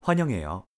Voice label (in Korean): "환영해요", Korean; hello in korean